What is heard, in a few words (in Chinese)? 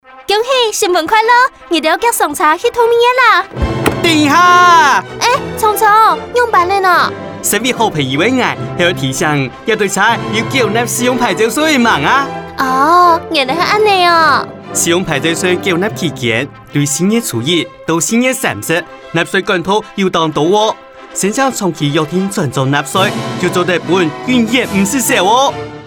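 使用牌照稅開徵廣播廣告 客語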